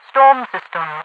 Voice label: storm system, radio voice; Half Life 2

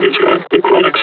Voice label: announce enemy zombie; combine charger; Half Life Alyx

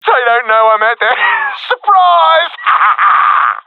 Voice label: guarding escapezone, professional epic; vo; Source Model Packs; Counter Strike Model Pack; CS2

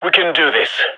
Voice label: pep talk; vo; gign

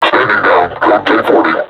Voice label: taunt, vo combine suppressor; Half Life Alyx

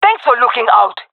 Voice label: thankful, jungle fem; vo; agents; Source Model Packs; Counter Strike Model Pack; CS2; jungle fem epic

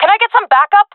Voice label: request backup, fem; player vo jungle fem; Counter Strike Model Pack; CS:GO

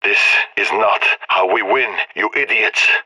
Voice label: scared; agents; leet epic

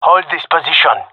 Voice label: request hold, gendarmerie male; vo

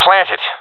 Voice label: friend plant bomb, professional epic; vo; Counter Strike Model Pack; CS:GO

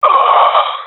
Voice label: death, professional epic, agents; vo; Source Model Packs; Counter Strike Model Pack; CS2

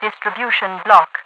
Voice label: distribution block, radio voice; Half Life 2

